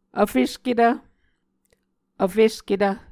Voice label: "vfesketv", Muscogee